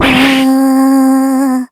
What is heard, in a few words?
shock